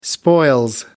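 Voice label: "spoils", British English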